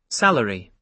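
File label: "salary", British English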